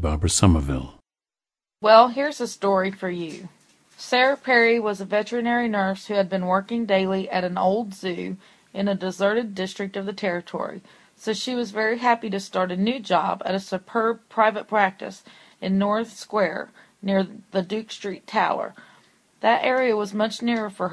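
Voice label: ohio, International Dialects of English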